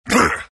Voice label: pain; dota; earth spirit